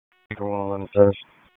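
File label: Police Dispatch, p25; Raleigh; Southwest District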